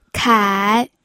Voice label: "kai3", pinyin